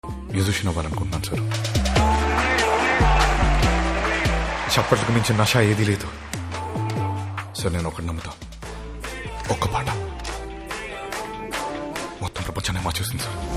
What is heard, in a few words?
Telugu Dialogue; 99 Songs